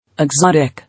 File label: "Exotic", English